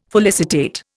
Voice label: "felicitate", Indian